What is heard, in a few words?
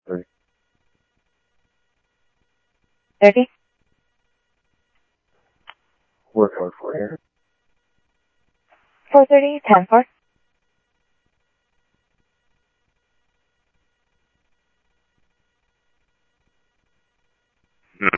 Sheriff, scanner audio